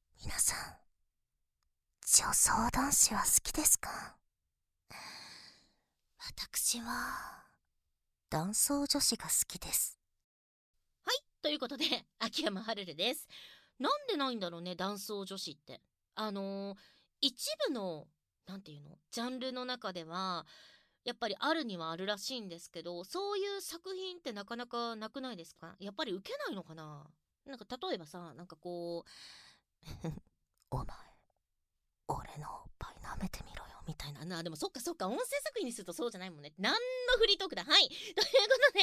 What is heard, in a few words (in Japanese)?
フリートーク BGM無し